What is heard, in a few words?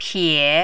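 "pie", Mandarin, third tone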